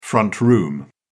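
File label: "front room", British English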